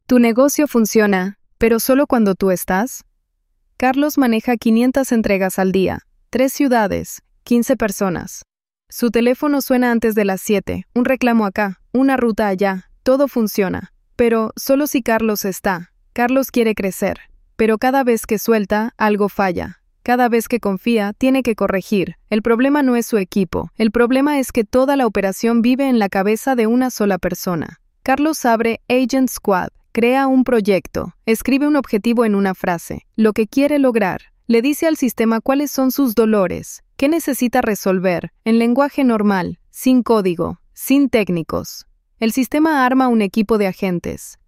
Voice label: full narration